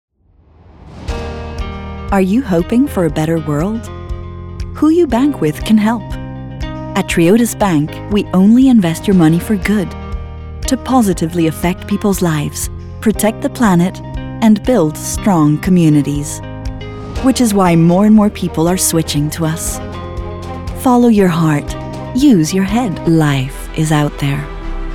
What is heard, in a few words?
Commercial International Eng; Anglais neutre; chaleureux; Triodos